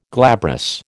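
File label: "glabrous", American English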